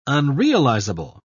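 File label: "unrealizable", British English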